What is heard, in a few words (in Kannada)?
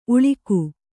"ಉಳಿಗ", Kannada